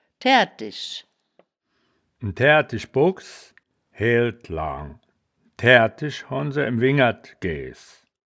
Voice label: "taerdtisch", wittlich

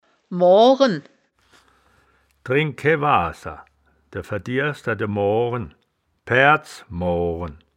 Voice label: "moaren", wittlicher; das wittlicher woerterbuch; woerterbuch vertonung

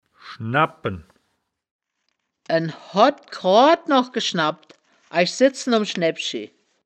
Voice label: "schnabpen", wittlich

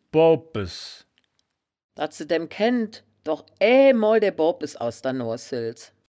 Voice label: "boopes", woerterbuch, Wittlich

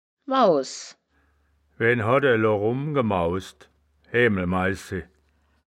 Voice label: "maus", Wittlicher; das wittlicher woerterbuch; woerterbuch vertonung

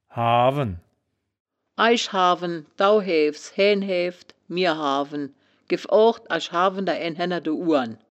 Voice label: "haawen", wittlicher woerterbuch; das wittlicher woerterbuch; woerterbuch vertonung

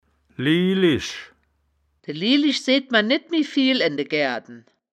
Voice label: "lielisch", Wittlicher; das wittlicher woerterbuch; woerterbuch vertonung

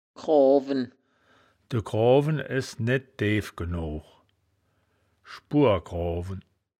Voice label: "groawen", wittlich; das wittlicher woerterbuch; woerterbuch vertonung

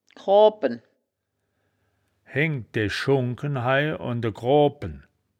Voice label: "kroabpen", wittlich

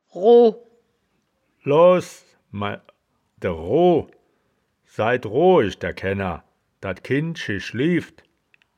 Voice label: "rooh", wittlich

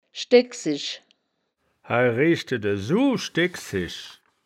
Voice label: "schdegk sisch", wittlich

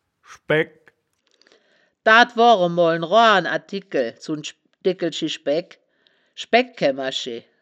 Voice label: "schbaegk", wittlicher woerterbuch; das wittlicher woerterbuch; woerterbuch vertonung

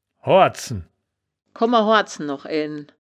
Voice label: "hoardzen", wittlich

